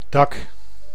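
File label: "dak", Dutch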